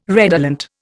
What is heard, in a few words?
"redolent", Indian